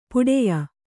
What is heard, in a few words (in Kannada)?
"ಪಟ್ಟೆಯ", Kannada